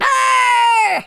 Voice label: "fire", Pirate